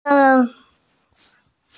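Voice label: "tian e", Mandarin Chinese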